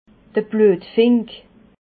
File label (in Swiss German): "bluetfink", Alsace